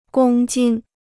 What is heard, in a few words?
"公斤", Chinese